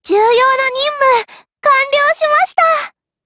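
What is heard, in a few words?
Japanese, Voice; OPERATIONOVER; GF Alarm; PPsh41Mod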